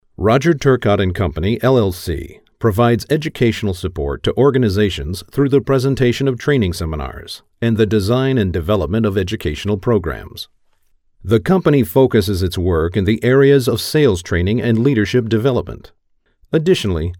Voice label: corporate; business